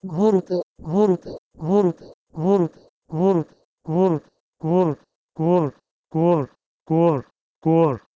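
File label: Proto-Indo-European ghordho to Pashto kor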